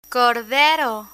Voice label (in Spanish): "cordero", Spanish